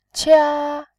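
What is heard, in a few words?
"qia", Mandarin, tone 1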